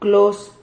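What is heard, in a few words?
"clos", Spanish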